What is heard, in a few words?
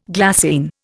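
"glassware", Indian; Dictionary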